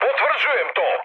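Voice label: Serbian player killed enemy mood high, speech; War Thunder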